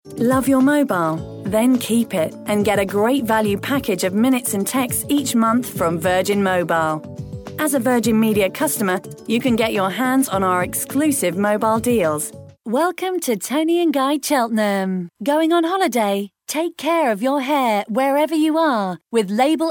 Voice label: On hold showreel